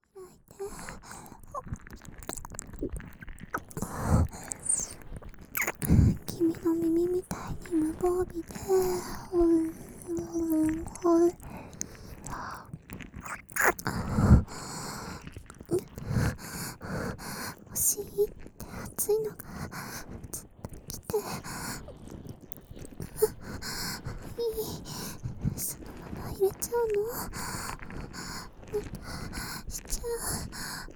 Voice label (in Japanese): とろとろ耳舐め, ASMR; 本番ファック